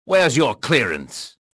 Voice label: suspicious; XBOX

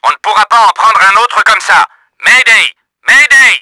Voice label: HIT, french; Battle Engine Aquila; XBOX

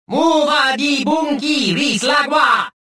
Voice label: suspicious, rodian

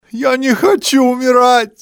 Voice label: dont wanna die, male; XBOX; civ

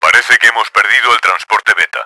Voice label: beta down, Spanish; Battle Engine Aquila; XBOX

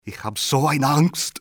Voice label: scared, male; XBOX

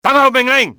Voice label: awaiting orders, cantonese male; XBOX; enemy

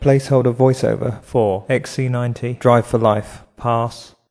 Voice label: congrats, Voice Over; xc90; Volvo Drive For Life

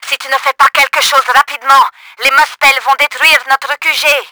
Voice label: WARNING, french; Battle Engine Aquila; XBOX

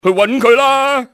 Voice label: find him, cantonese, male; XBOX; enemy

